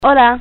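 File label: "hello" Spanish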